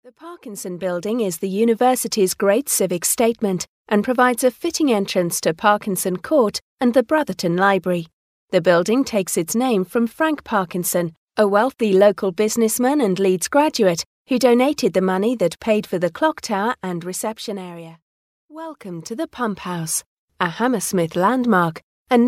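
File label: English British, female, narration; voice demos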